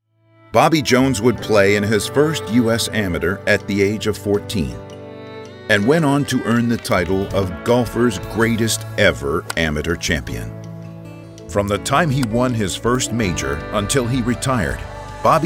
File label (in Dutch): Documentaire Algemeen